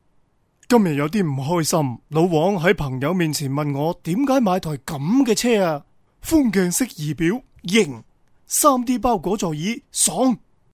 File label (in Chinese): 男【角色】; 粤男; 买车